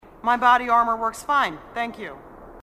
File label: marine female; marine sassy; h2dialogue